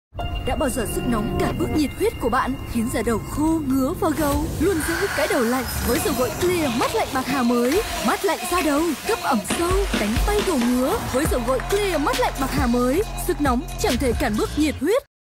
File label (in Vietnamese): Quang Cao Dau Goi Clear